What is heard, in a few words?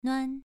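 "nuan", tone 2, pronunciation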